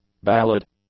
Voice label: "ballad", English; nouns

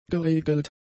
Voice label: "geregelt", German; adjectives

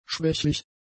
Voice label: "schwächlich", German; adjectives

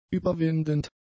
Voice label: "überwindend", German; adjectives

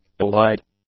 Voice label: "allied", English; adjectives

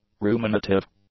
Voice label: "ruminative", English; adjectives

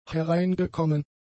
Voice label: "hereingekommen", German; adjectives

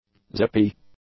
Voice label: "zippy", english; adjectives

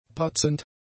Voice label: "patzend", German; adjectives

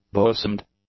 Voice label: "bosomed", English; adjectives

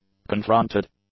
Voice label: "confronted", English; adjectives

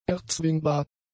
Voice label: "erzwingbar", German; adjectives

